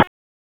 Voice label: Police Northwest District Tac 1